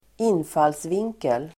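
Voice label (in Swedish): "infallsvinkel", Swedish; Uttal: [²'in:falsving:kel]; (angle of) approach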